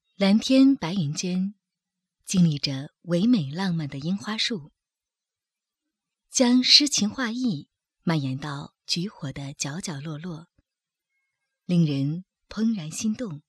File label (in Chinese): 女 专题 餐厅篇甜美